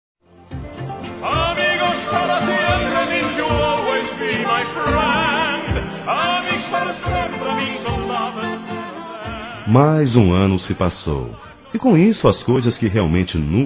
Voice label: ANO NOVO P AMIGO(A), masc; Telemensagens; Natal e Ano novo

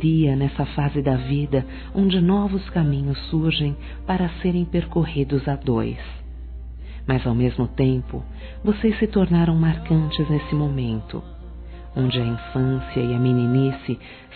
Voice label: Obrigado aos Pais, Masc; Telemensagens; Convites e Agradecimentos; Telemensagens 2017

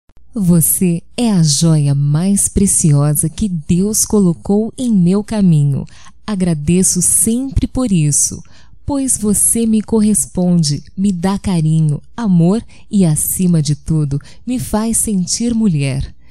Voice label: Te Amo Feminino, Telemensagens

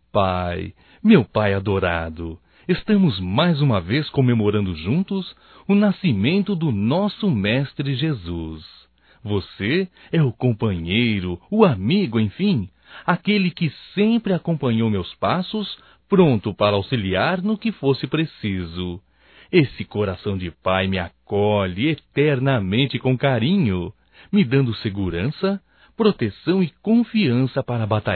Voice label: NATAL PAI (M); masc; Telemensagens 2017; Natal & Ano Novo

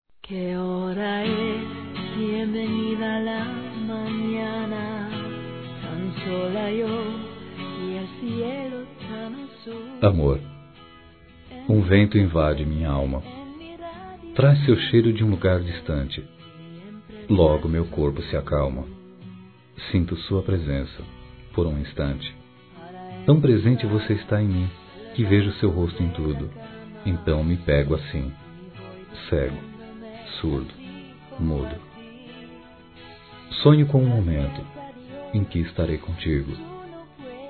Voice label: Apaixonado Masculino

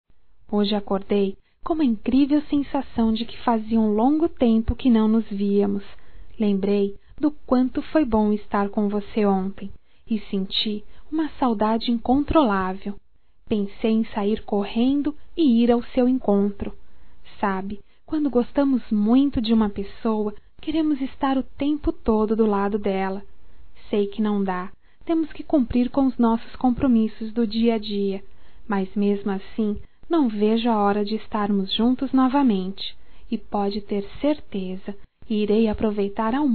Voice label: Já ESTOU COM SAUDADES, fem

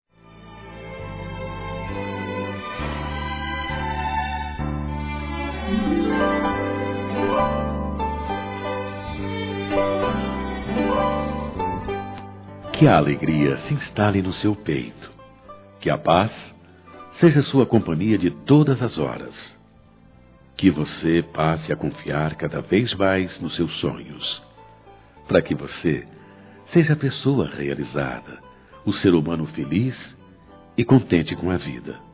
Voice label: NEUTRO M, Ano novo; Telemensagens; Natal e Ano novo; masc

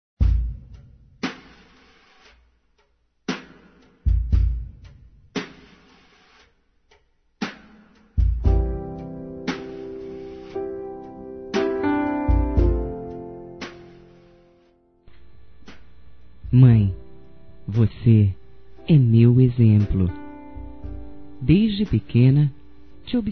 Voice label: Dia das Mães Companheira Feminino; Telemensagens 2017

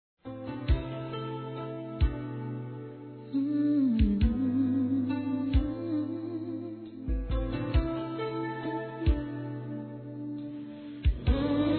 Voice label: Romantica Reconciliação Feminino, Telemensagens; Românticas

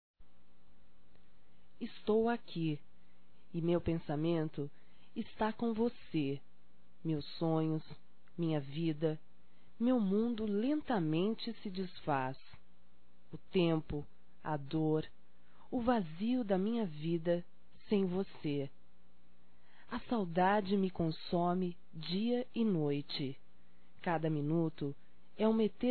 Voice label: Reconciliação Poesia Feminino

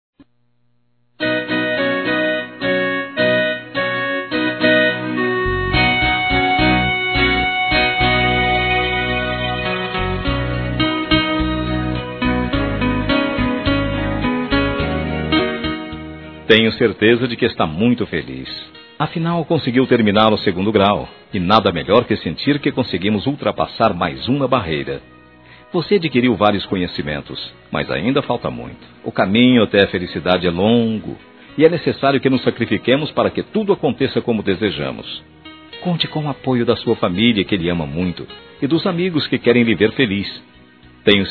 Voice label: Formatura Masculino; 2º Grau; Telemensagens 2017; Formaturas

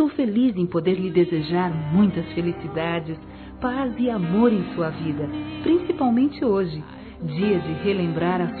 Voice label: MÃE VOCÊ É ESPECIAL F, Telemensagens, Dia das Mães; Telemensagens 2017

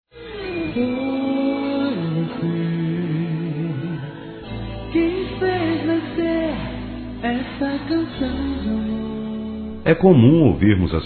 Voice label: Aniversárioi Romantico Masculino; ANIV. Evangelico

